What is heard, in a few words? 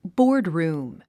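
"boardroom", English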